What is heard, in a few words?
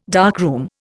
"darkroom", Indian